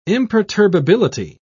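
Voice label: "imperturbability", British English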